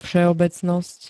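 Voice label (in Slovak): "vseobecnost", Slovak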